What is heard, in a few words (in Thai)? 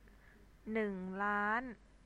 "neung laan", Thai